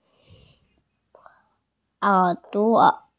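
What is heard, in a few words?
"ear", Mandarin Chinese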